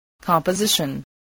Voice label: "composition", english

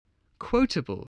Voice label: "quotable", english

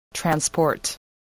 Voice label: "transport", voice

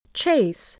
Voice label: "chase", English, voice

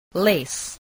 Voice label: "lace", English

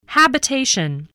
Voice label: "habitation", spelling

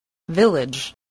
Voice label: "village", English

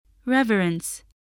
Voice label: "reverence", English

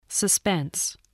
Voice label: "suspense", voice spelling

